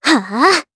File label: Casting, jp; Annette; kingsraid